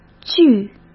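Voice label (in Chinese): "剧", Mandarin Chinese; hsk; chinese-flashcards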